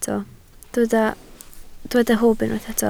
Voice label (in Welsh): "hwb", Welsh